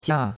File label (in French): "jia4", chinois; Cours-de-Chinois